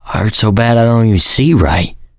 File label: going to die, survivor voice mechanic